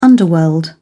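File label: "underworld", British English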